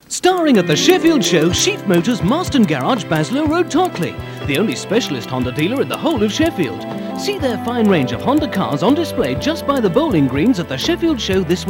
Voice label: Radio Hallam Advert - Motors; Sheaf